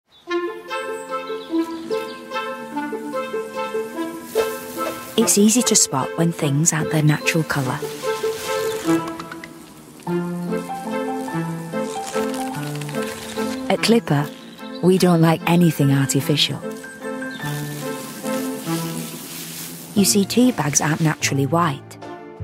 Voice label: Tea Advert Unbleached Teabags; Clipper

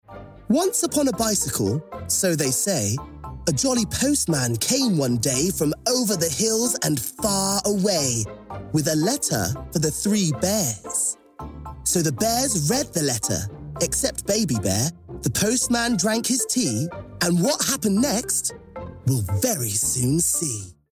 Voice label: Narration

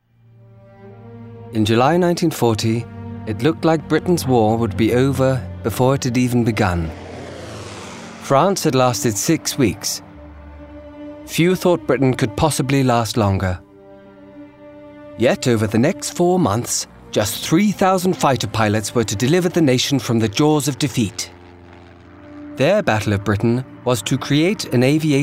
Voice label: documentary; Spitfire

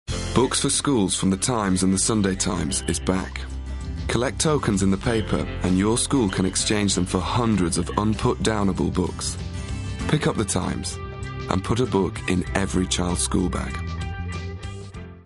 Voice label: warm relaxed assured; The Times

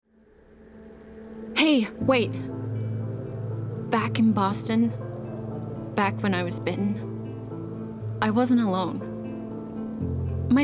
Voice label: Animation; The Last Of Us

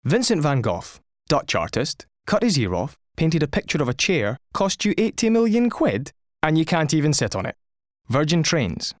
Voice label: radio, Scottish Glasgow; Virgin Trains; London

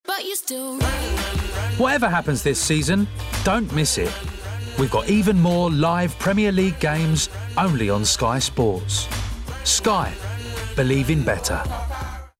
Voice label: Commercial; Sky Sports

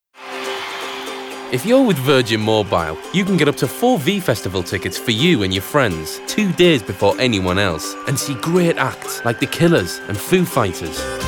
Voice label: COMMERCIAL; VirginMobile

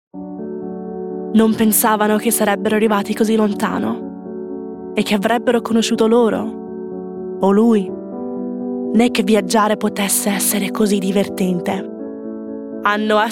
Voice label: italian

